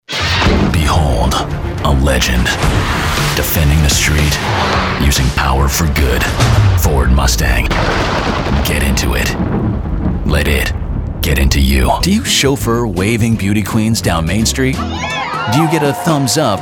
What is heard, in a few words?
commercial, men